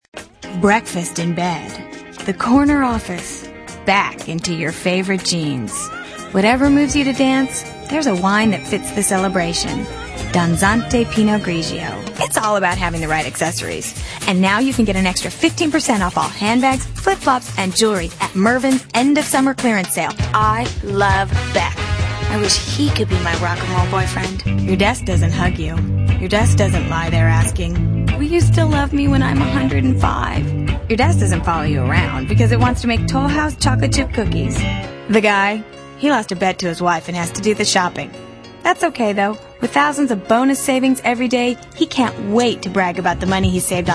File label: female, contemp